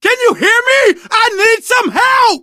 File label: help, survivor voice, manager